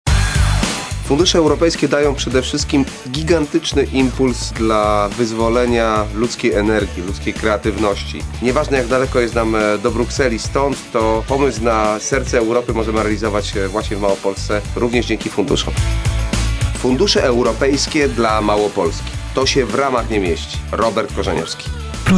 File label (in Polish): spot radiowy